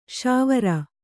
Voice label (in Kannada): "ಶಾವೀರ", Kannada